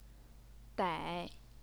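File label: "dai", third tone, Chinese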